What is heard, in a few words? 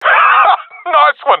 sees enemy killed, professional epic; vo; Counter Strike Model Pack; CS:GO